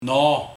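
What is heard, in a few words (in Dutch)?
"Noa", dialect; Nu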